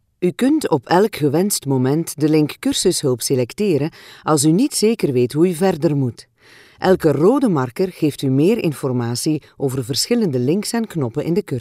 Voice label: Flemish dry read professional E learning; Baxter

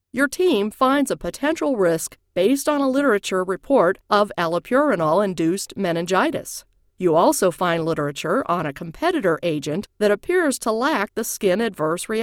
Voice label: Medical Research Company E Course

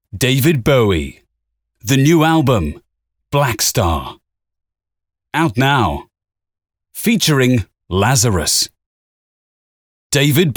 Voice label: UK TVC

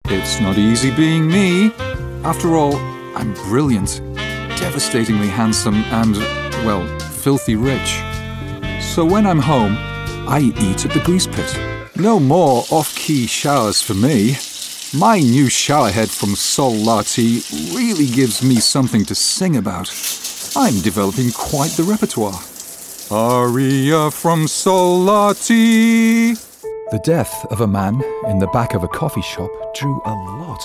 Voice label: VO Commercials

